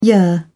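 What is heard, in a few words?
"yer", British English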